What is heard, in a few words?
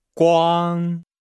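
"guang", Chinese; Nihao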